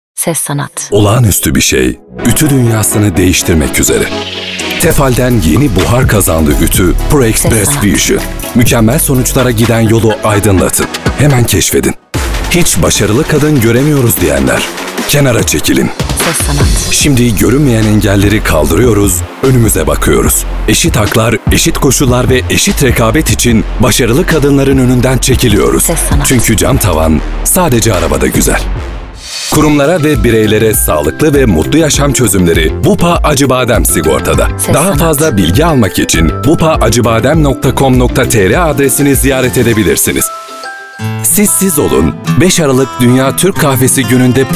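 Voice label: Turkish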